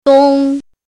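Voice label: "dong" first tone, pinyin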